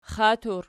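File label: "xat" plural, Archi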